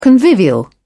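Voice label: "convivial", British English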